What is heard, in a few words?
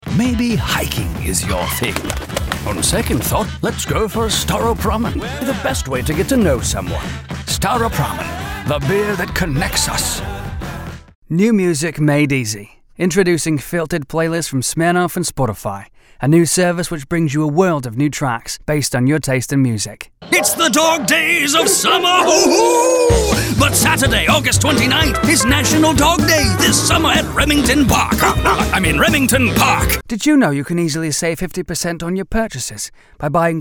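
Male British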